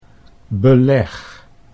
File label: "beleg", Dutch